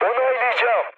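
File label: Turkish player killed enemy, mood med, speech; War Thunder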